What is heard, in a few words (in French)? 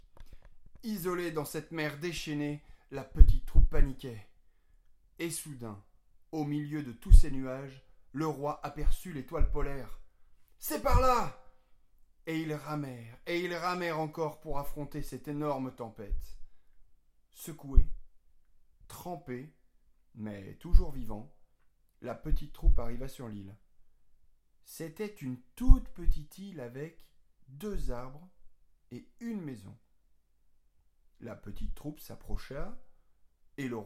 narration